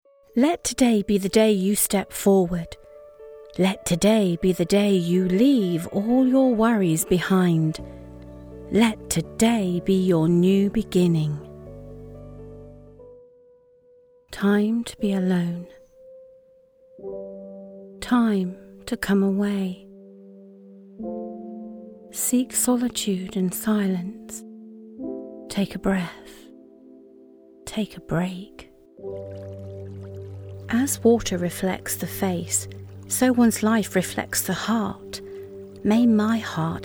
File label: Motivational Well-Being